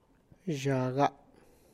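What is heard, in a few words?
Tlacochahuaya Zapotec; my grandchild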